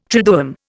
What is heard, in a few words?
"triduum", Indian